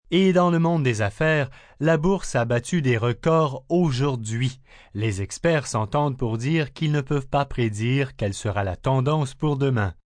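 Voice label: News Stocks, Broadcast, news, male news item in French on business, stock market up.; male news item in French (1e) on business, stock market up. (human voice speaking); VoiceBox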